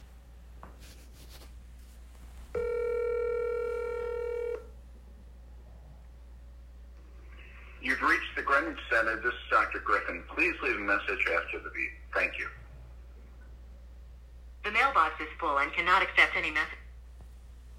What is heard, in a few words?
Voicemail